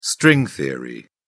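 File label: "string theory", British English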